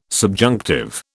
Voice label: "subjunctive", British

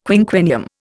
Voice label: "quinquennium", Indian

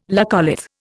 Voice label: "laccolith", Indian

